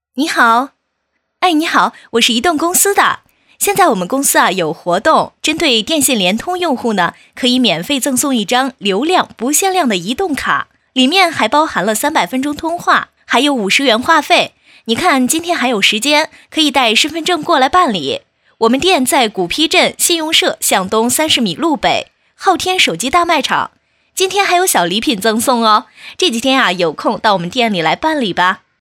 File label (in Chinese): 女, 真人电话; 移动公司